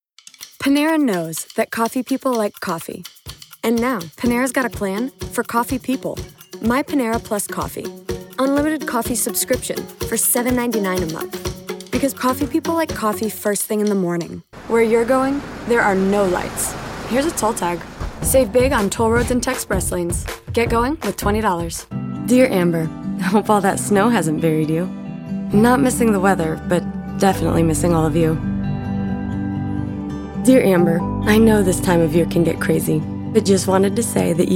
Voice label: vo demo